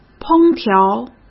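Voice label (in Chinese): "烹调", Mandarin Chinese; chinese flashcards; hsk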